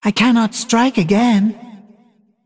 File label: Female Dragon